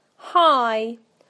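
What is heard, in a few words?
"high", British English; PictureDictionary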